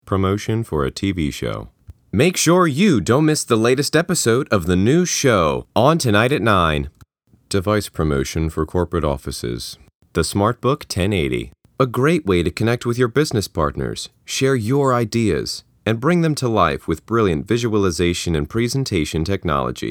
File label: commercial voice